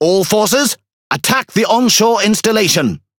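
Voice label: Pirate Speech Quick Commands Enemy Building, English pirate; World Of Warships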